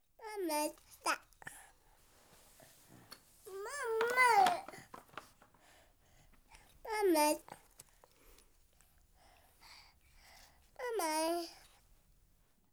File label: Month Old Boy, Words--Mummy--, Babies; BBC Sound Effects Library